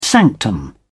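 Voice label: "sanctum", British English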